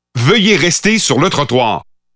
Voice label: Pathfinder French Standard Canadian